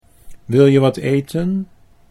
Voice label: wil je wat eten, Dutch